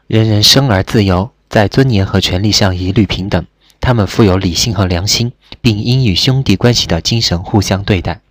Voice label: udhr mandarin